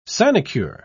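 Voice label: "sinecure", English word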